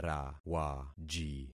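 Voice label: "rawaji", concatenated syllables; Affixing experiment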